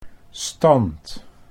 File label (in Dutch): "stand", Dutch